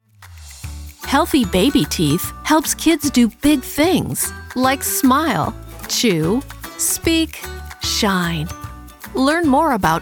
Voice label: PSA